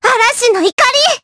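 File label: Vox Skill, voices, Japanese; Lilia; kings raid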